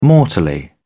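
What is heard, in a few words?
"mortally", British English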